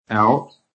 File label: "OUT", american; PHONETIC